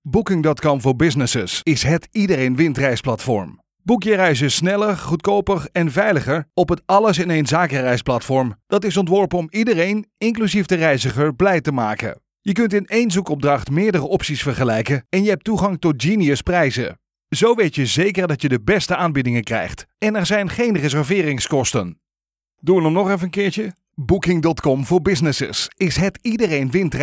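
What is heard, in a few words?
Corporate product presentation native Dutch, male; Booking dot Com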